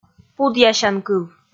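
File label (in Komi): "пудъясянкыв", Komi, female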